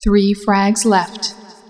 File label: 3 frags, female